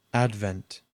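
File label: "advent", US English; pronunciations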